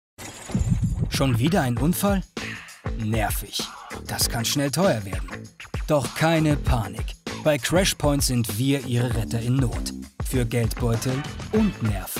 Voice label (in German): Imagefilm Versicherung